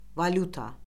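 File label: "valyuta", Azerbaijani; Orfoqrafiya Lüğəti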